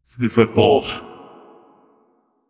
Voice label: generate a warm echoed ma, authoritative